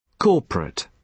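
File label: "corporate", British English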